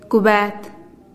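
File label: "kubeet", bucket, Teotitlán del Valle Zapotec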